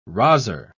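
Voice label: "rozzer", British English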